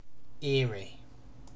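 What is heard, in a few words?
"eerie", British English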